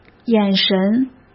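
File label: "眼神", Mandarin Chinese; hsk; chinese-flashcards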